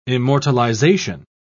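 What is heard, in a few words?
"immortalization", British English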